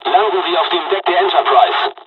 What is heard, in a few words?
landing, dialogs german; War Thunder; midway